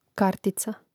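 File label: "kartica", Croatian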